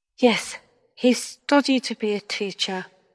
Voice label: Voice Acting es F